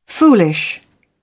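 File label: "foolish", British English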